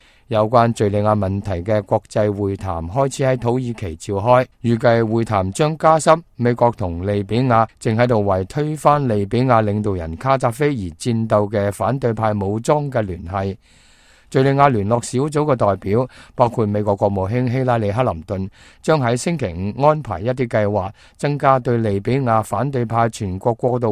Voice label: cantonese, news